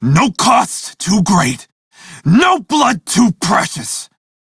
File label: crew near death, male; Dialog; en; Warframe; Railjack Crew; Red Veil